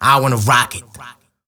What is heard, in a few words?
Vocals